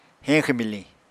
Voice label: "heñxw-miliñ", apatani

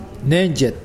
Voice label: Mokilese ocean

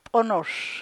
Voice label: "p'onosh" hand, Chukchansi

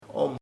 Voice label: "affirmative sí", chamacoco

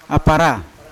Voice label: "apara", Yawa; cassowary; unat

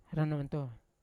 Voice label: "rananto" to give, Yawa

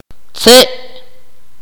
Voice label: "laugh", Akateko

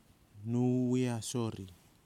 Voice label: "nui yasori", Nafe (Kwamera); [nui jasori] river

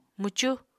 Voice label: "mwcu", Apatani

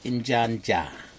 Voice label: chicken blood, Aneityum

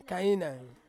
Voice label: "kainaing", Ansus; cucumber

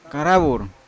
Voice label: "karavur" bean, Yawa

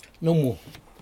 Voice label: fish, Aneityum

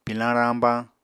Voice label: "pilañ rañbañ", Apatani

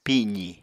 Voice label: "pizi", apatani

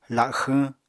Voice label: "laxwñ", Apatani

